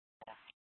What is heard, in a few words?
PD Dispatch